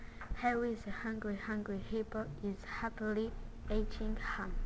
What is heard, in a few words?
tongue twister harry hippo eleve